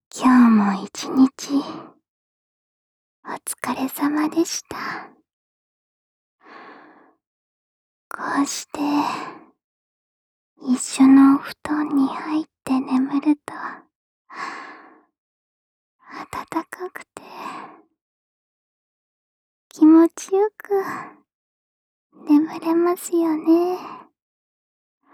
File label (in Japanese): おやすみ添い寝ボイス; SE有り; 母性愛にめざめたお嬢様エルフとのあまとろ発情新婚生活; KU100バイノーラル